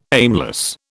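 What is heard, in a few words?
"aimless", British English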